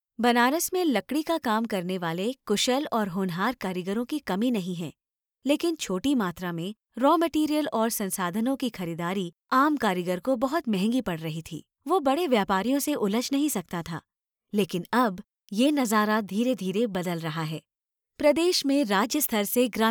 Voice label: Hindi corporate